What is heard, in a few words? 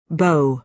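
"bow", American English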